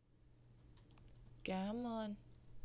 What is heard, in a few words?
Thank you Vietnamese